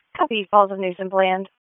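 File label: Police Northeast District Dispatch; Raleigh; wake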